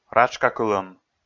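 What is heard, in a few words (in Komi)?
"ратшкакылӧм", Komi, male; audio dict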